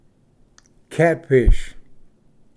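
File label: "catfish", English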